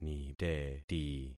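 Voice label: "niteti", concatenated syllables; Affixing experiment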